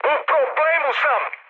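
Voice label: Serbian aircraft damaged mood high, speech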